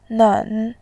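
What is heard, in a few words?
"nen", Mandarin, tone 3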